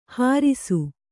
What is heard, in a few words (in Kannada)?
"ಹರುಸ", Kannada